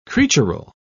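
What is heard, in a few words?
"creatural", English, British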